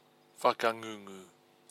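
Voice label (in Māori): "whakangungu", Māori word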